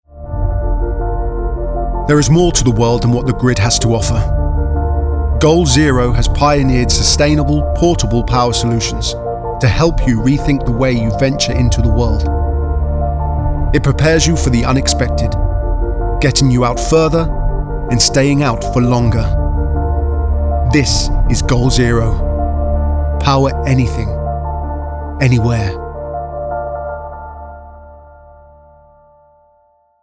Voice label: commercial; Goal Zero